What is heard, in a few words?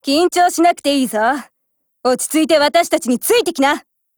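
go attack, Japanese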